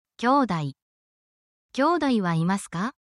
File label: japanese talking about family and relations